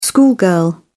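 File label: "schoolgirl", British English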